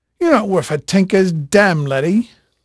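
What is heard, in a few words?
English male, voice; Pirates Of The Caribbean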